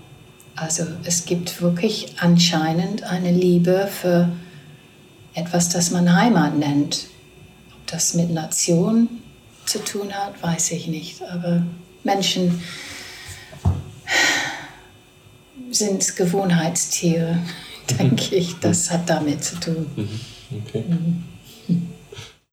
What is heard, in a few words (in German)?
englisch deutsch